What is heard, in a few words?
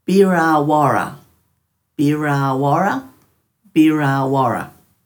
"biraawara", Dhurga